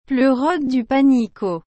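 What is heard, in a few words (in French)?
"pleurote du panicaut", French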